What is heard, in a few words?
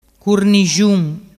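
"curnixun", Vernazzese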